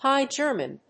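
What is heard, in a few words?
"High German", English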